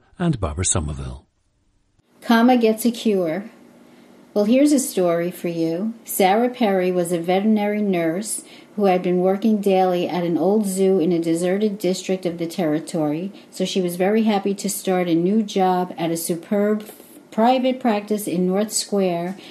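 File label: new york, International Dialects of English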